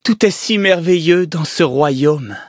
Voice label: levelup, fr; cookie run